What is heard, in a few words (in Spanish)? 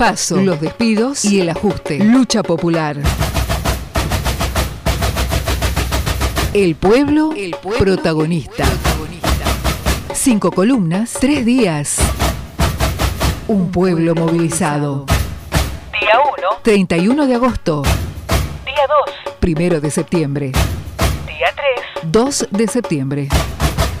marcha spot; marcha federal